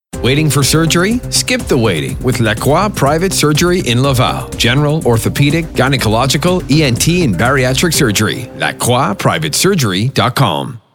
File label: male voice; Clinique Medicale Lacroix; OR; radio et tele